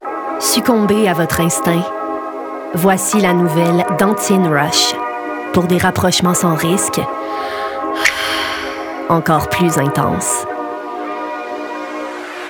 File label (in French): Publicité; Dentyne